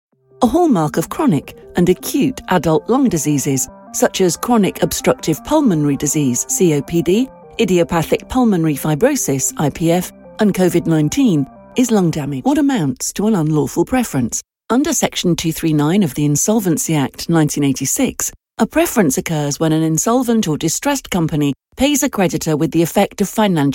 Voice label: technical elearning